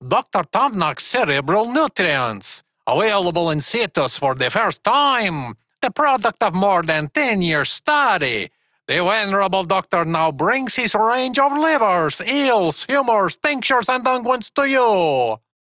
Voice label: Ost Male en; Cetus

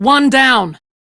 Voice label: Crew Enemy Defeat, Female; Dialog

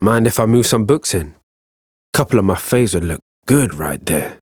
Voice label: Ambient Barks, en; Warframe; Mall Romance; Quincy

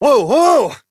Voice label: Horse Stop Adult; Duviri

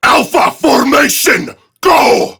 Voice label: Attack Prime Grineer Male; Tau; Battle Barks

